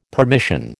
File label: "permission", American